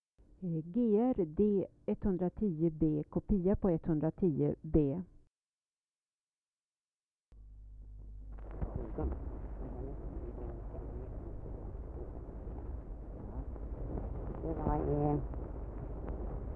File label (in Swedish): dialekter, Svenska sagor; Folkminnen